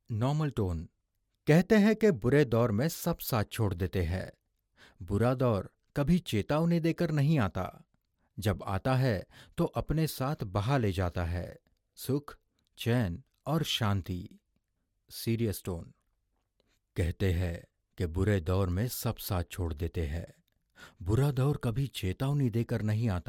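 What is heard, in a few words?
Hindi General; GoLocalise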